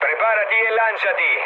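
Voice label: Italian bailout mood low, speech; War Thunder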